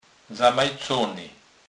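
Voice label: "zamajacony", Kurpie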